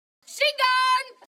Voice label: woman calling dog